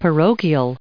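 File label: "parochial", us English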